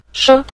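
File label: "she" Mandarin Chinese, neutral tone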